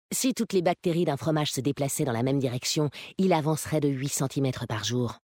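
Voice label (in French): French Femme Blague; Fromage; Kultirassien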